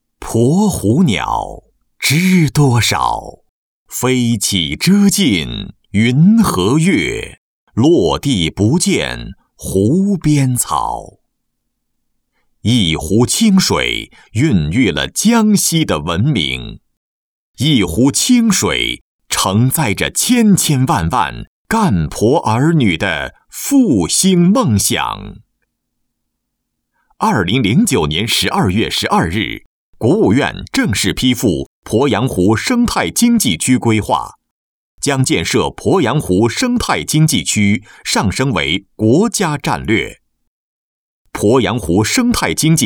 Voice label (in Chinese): 男 生态区; 鄱阳湖生态区